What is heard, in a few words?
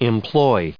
"employed", us